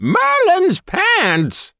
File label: wizzard taunt confirm